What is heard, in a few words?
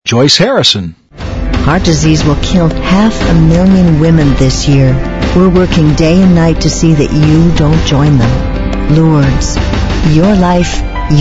female; Spotland Productions